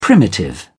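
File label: "primitive", British English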